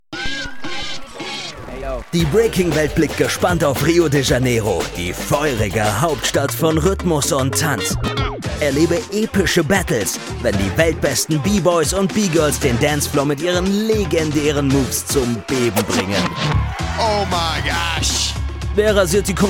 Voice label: Trailer; RB BCOne World Final

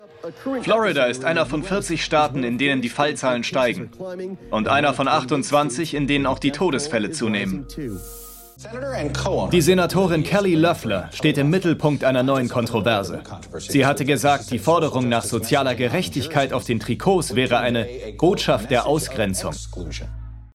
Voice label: Voice Over; Canal+; WNBA

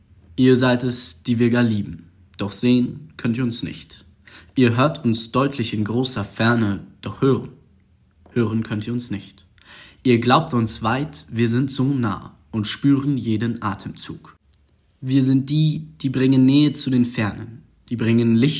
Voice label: sachlich, jungen

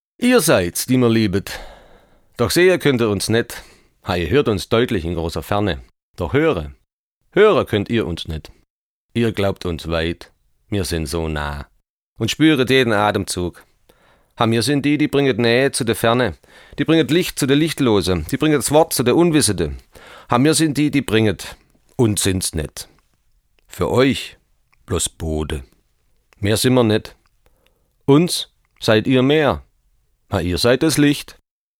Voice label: schwaebisch, Dialekte, maenner

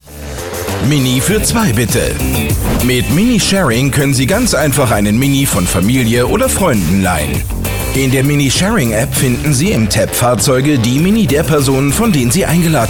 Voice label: Erklärfilm, maenner; Mini How To; Sharing Reservierung

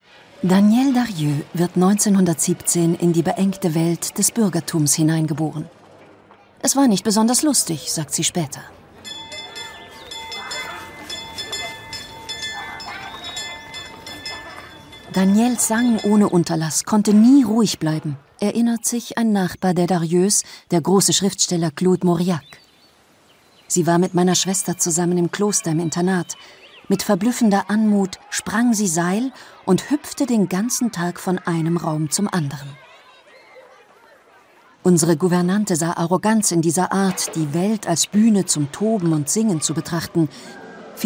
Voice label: Kommentar, frauen; Danielle Darieux; Arte

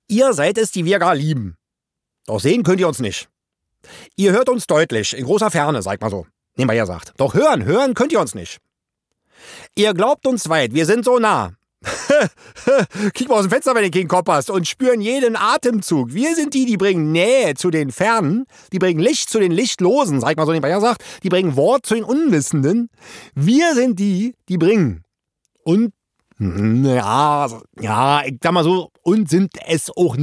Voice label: Dialekte berlinerisch, maenner

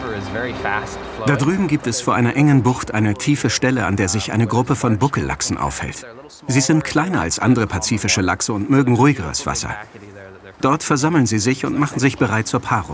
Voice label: Voice Over, maenner; Im Einsatz für Lachse

